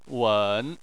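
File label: "wen", Chinese, third tone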